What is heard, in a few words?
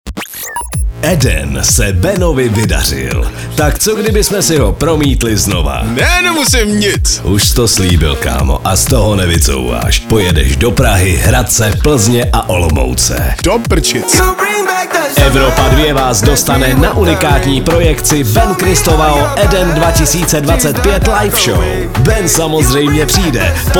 liner